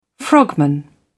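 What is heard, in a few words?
"frogman", British English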